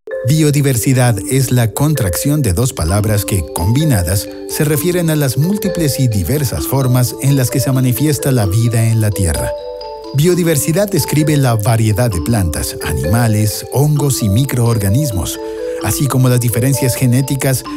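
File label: Male Spanish Latin American (Colombia)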